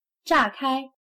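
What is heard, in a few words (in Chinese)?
"炸开", Chinese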